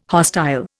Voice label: "hostile", Indian